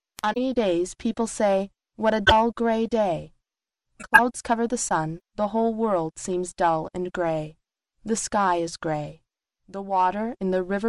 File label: Female